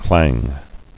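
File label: "clang", English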